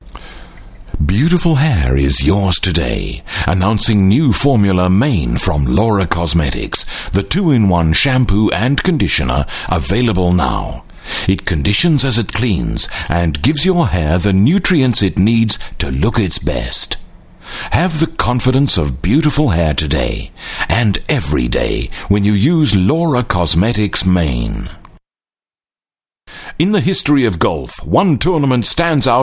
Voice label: Australian English, English Australian Male